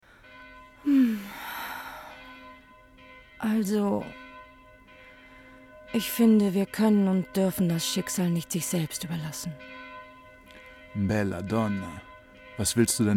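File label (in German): Hörspiel mit Glocken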